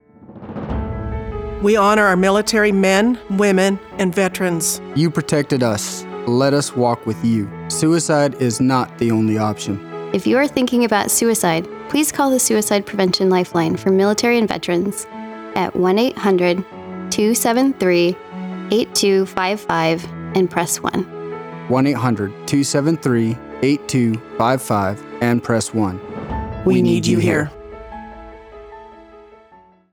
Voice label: Veterans Radio PSA